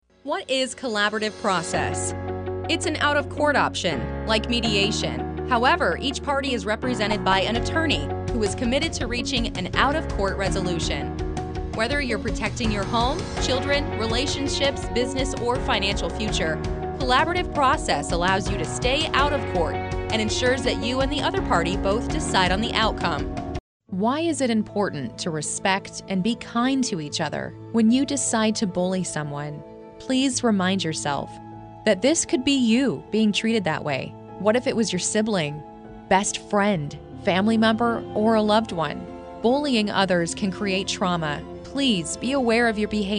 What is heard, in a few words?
USA